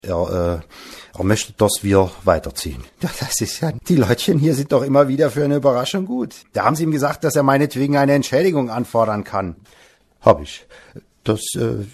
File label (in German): Dialekt Saechsisch